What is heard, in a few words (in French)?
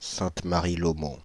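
"Sainte-Marie-Laumont", French, Paris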